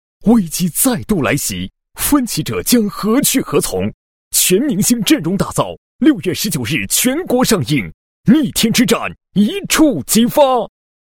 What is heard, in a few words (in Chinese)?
男 激昂力度; 国语男声配音; 磁性专题; 分歧者